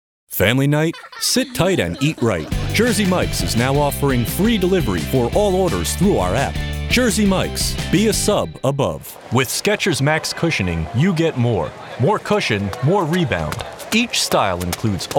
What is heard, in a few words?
Commercial, Voiceover